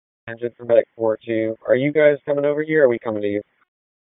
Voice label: PS TAC, p25; wake